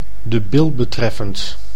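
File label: "de bil betreffend", Dutch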